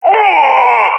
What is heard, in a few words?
death, balkan; vo; agents